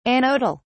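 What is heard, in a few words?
"anodal", British English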